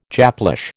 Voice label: "Japlish", American